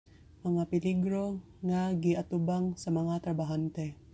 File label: Cebuano; hazards that workers face - mga peligro nga giatubang sa mga trabahante